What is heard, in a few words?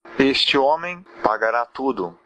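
pay, Brazilian Portuguese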